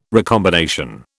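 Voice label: "recombination", British English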